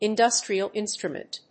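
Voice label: "industrial instrument", English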